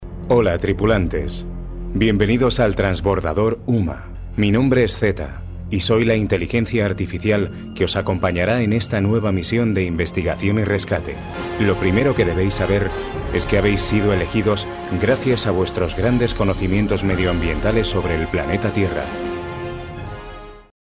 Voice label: narraciòn

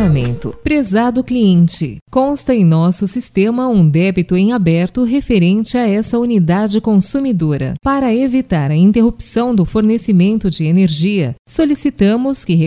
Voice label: comercial portugues Brasil

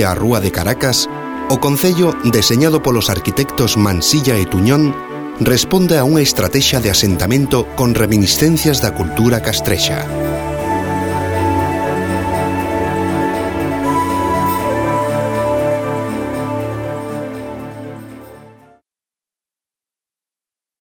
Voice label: comercial gallego

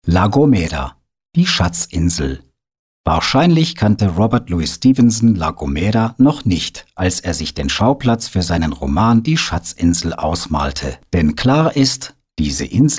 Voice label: narración alemán

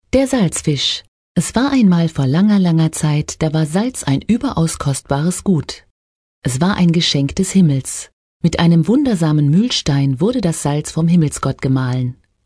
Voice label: narración aleman

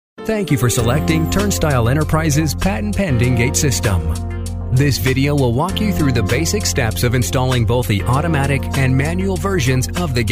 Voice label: narración inglés USA

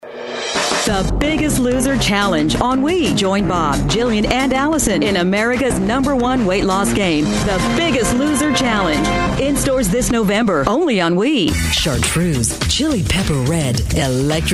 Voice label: comercial ingles USA

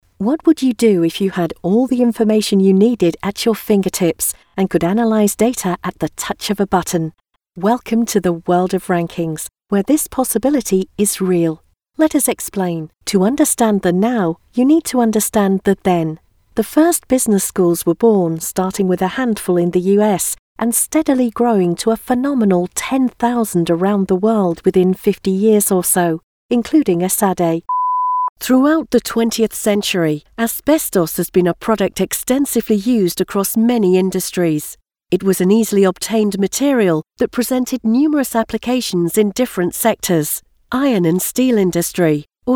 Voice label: narración inglés UK

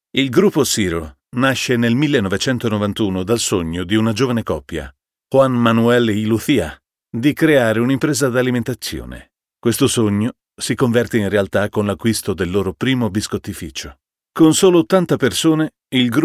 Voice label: narración italiano

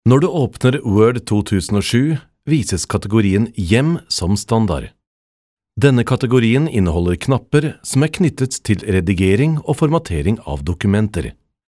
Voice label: narracion

